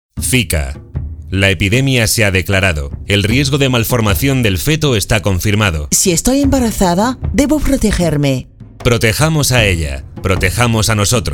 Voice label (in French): ESPAGNOL Radio alerte info; ZIKA